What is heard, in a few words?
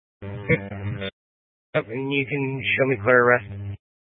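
Sheriff Dispatch, Scanner audio recording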